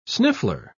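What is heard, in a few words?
"sniffler", British English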